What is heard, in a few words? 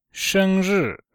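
"sheng1ri4", Chinese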